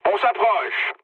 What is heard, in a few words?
near waypoint mood low, speech fr; War Thunder